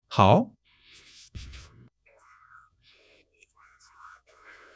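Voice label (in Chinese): "好", Chinese; HSK1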